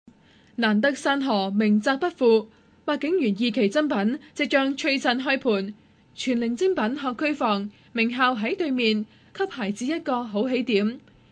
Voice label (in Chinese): 粤语女 房地产